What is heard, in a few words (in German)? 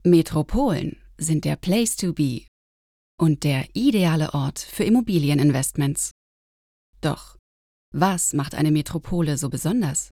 Imagefilm